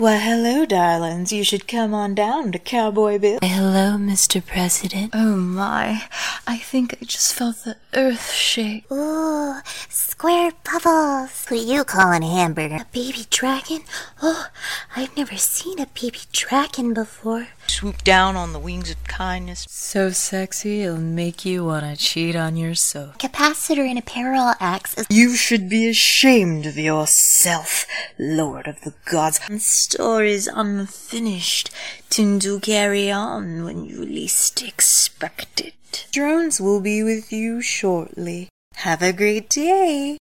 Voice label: Character; BoysenTalent